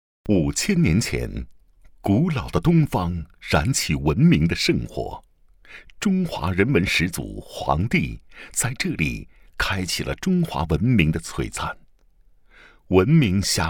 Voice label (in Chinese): 宣传片; 少林大会